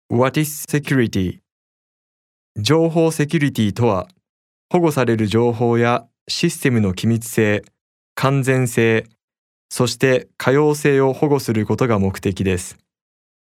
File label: japanese voiceover locutor japones